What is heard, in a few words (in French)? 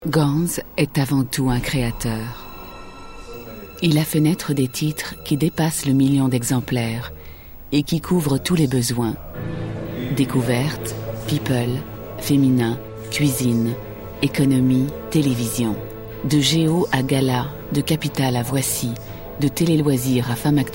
Documentaire; Ganz